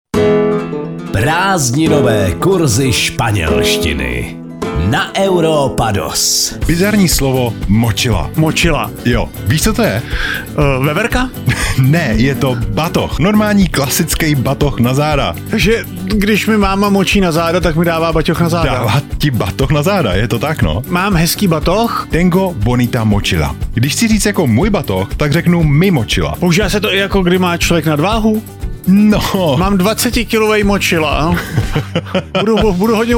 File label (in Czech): spanelstina spanelstina